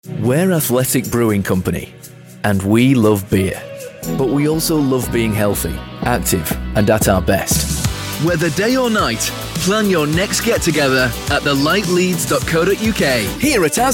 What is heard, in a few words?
yorkshire northern